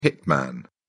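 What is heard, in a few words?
"hitman", British English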